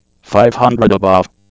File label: "500 above", GPWS; A32X